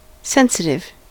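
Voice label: "sensitive", US English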